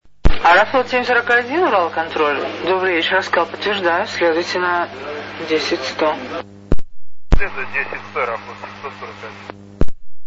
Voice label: ural control female